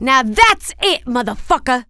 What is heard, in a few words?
angry, female; kingpin; mona